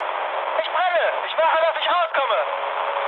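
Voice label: German on fire mood med, speech; War Thunder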